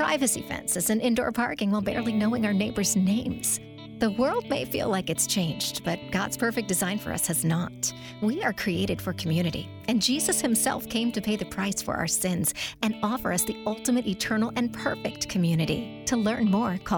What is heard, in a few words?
Conversation